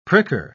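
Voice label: "pricker", British English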